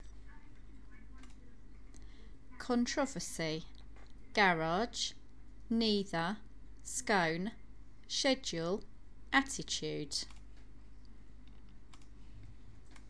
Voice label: southend on sea essex female